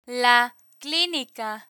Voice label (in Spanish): la clinica, Spanish; spanish nouns; learn spanish